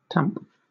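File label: "tamp", British English; pronunciation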